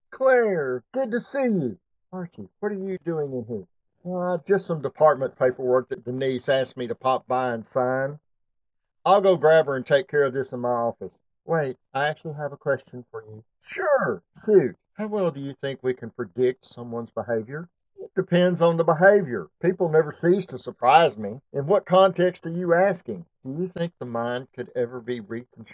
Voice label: voice acting for a sci-fi audiodrama (fiction podcast); Neurobridge